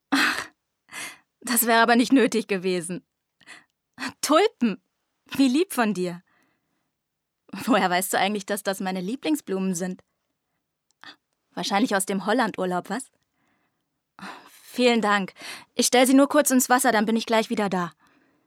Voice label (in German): synchron